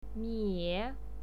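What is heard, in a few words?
"mie", Mandarin Chinese, third tone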